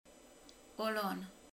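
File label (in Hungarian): "só", Hungarian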